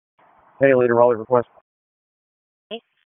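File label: Police District Dispatch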